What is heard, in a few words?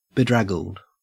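"bedraggled", Australian English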